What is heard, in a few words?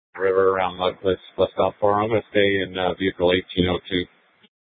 Sheriff, scanner P25